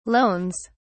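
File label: "loans", English, British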